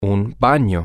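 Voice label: Spanish, male; a Bathroom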